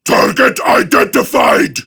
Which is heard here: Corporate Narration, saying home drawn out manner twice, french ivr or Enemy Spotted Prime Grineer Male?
Enemy Spotted Prime Grineer Male